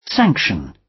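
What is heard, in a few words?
"sanction", British; Crime and punishment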